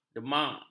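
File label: "demak", Malay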